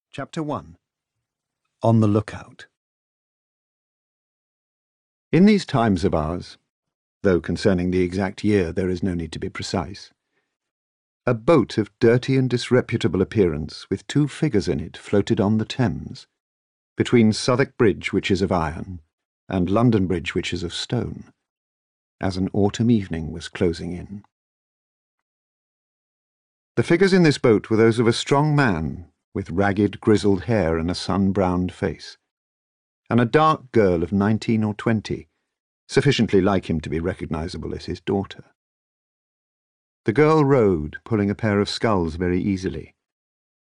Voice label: Audiobook; Out Mutual Friend

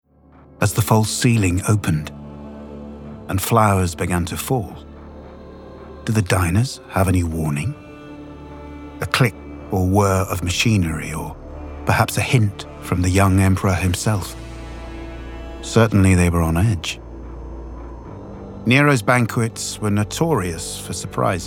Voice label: Narration; Nero's House

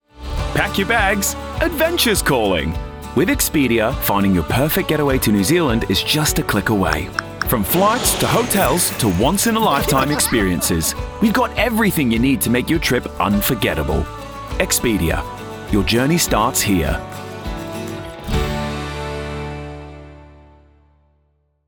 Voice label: COMMERCIAL NZ; EXPEDIA

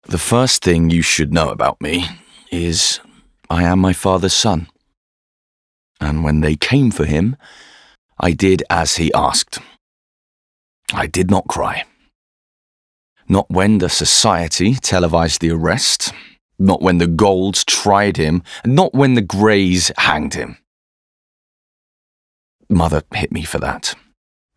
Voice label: audiobook narration; Red Rising

